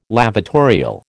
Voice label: "lavatorial", American